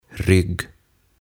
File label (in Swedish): "Rygg" Selkä